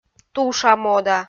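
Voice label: "туша мода", Komi, female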